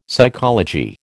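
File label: "psychology", American English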